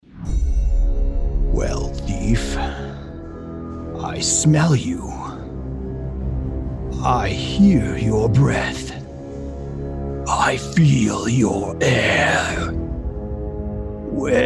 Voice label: Dragon with music; Smaug

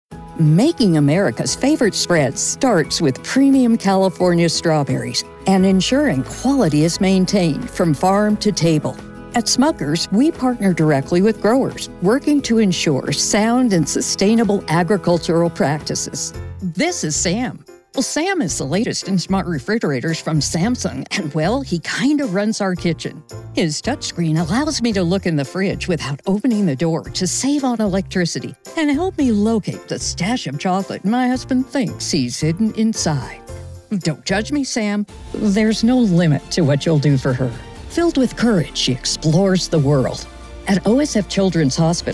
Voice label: Corporate Narration demo

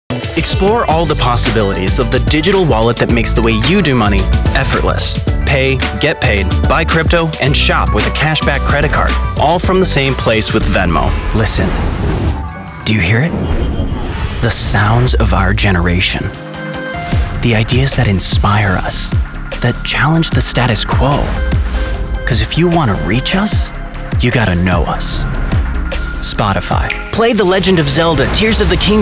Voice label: Commercials

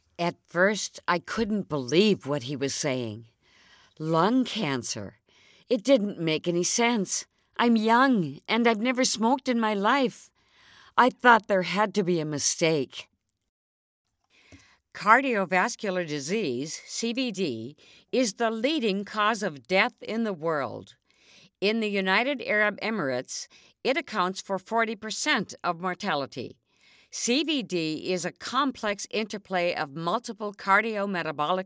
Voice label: Medical Narration

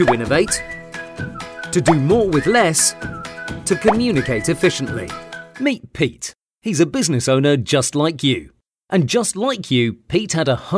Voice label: Explainer voice reel

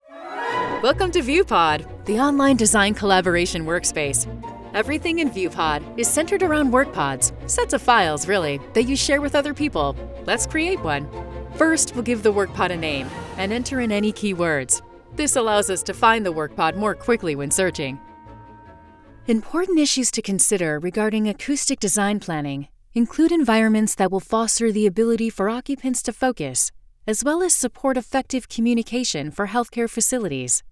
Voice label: ELearning

